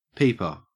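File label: "peeper" English, Australian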